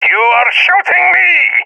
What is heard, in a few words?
taking fire friendly, balkan; balkan epic